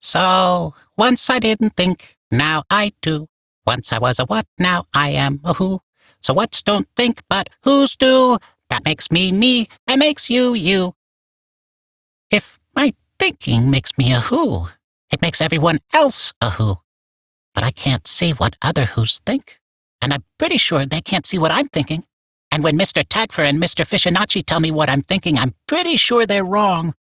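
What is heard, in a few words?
Barks Bird, English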